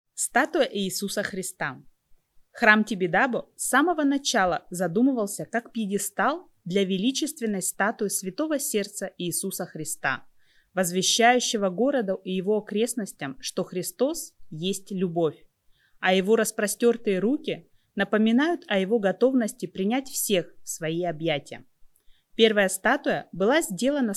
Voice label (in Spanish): Audio Ruso; TIBIDABO; русский аудиогид